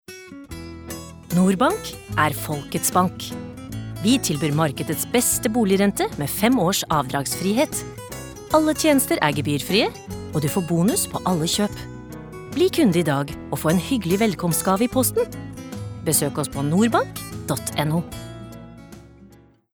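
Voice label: Norwegian commercial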